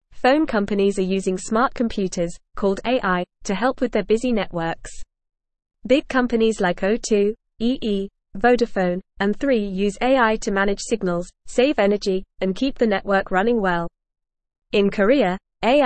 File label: English FAST Reading; Phone companies use smart computers to help networks